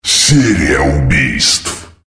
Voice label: "multikill", female